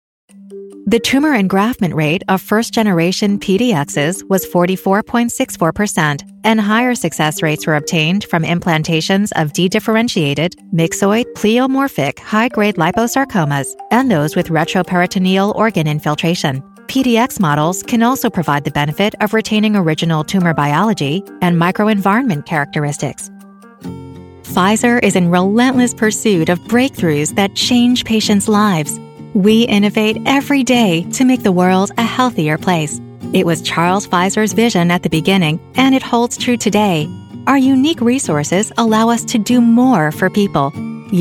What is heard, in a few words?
english american